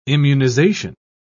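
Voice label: "immunisation", British English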